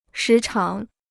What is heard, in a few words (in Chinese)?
"时常", Chinese